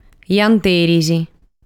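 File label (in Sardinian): "Janteris", Sardinian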